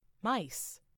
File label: "mice", en; FoodChain